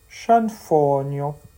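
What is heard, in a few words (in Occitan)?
"sanfonha", Limousin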